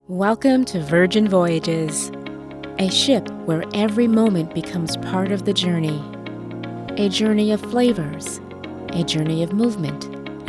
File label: Commercial